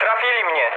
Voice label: aircraft destroyed mood low, speech pol